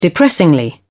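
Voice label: "depressingly", English, British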